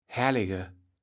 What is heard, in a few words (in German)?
"Hellege", Platt